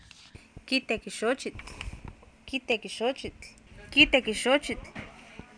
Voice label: kIiteki xoochitl, Oapan minimal pairs female; Oapan minimal pairs 1998